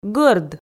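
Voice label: "гӧрд", Komi, female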